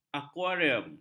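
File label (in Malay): "akuarium", Malay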